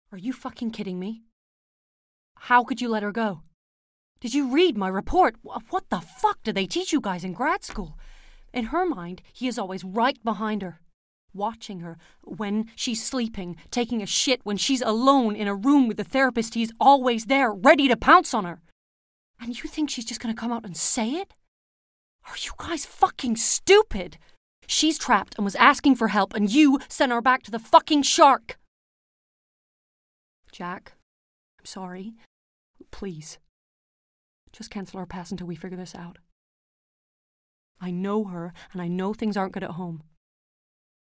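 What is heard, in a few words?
British English, Narration; voice demos; GoLocalise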